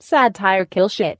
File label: female; Voice drops